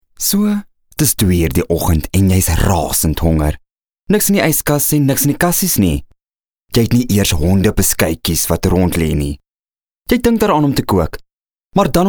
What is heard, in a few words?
afrikaans commercial